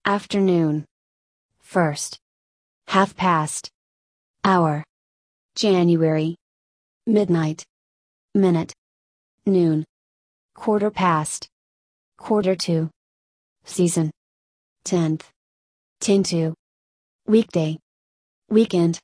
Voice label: usa; time